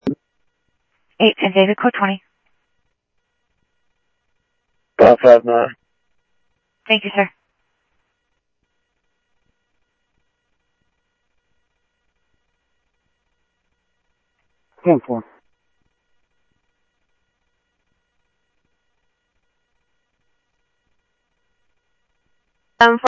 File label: Central Sheriff Central, scanner audio trunk